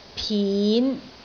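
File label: "pin5", Cantonese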